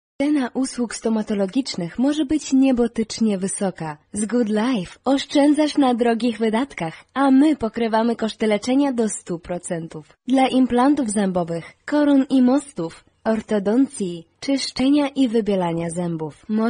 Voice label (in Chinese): 波兰语女, 外语, 温柔; 小语种